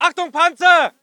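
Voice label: voice german infantry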